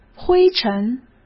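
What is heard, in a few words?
"灰尘", Mandarin Chinese; chinese-flashcards; hsk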